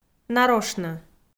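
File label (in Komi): "нарошнӧ" Komi, female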